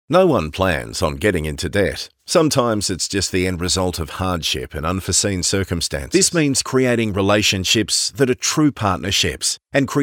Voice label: Corporate